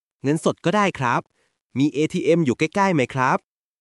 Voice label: Thai, basic thai phrases